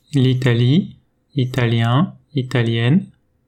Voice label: "italien", French

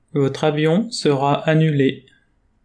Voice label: Votre avion sera annule, French

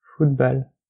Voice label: "football", French

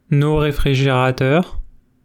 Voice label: nos refrigerateurs, French

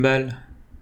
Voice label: "balle", French

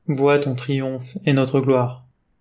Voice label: Voient ton triomphe et notre gloire, French

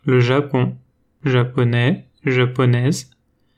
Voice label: "japonais", French

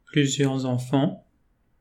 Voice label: plusieurs enfants, French

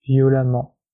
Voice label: "violemment", French

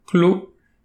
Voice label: "clos", French

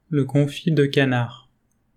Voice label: Le confit canard, French

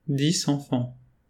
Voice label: dix enfants, French

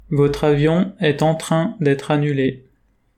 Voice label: Votre avion est en train detre annule, French

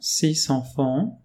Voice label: "six enfants", French

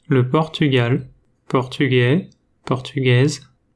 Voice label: "portugais", French

